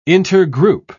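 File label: "intergroup", English, British English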